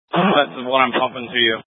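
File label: Incident Tactical 2, Scanner audio recording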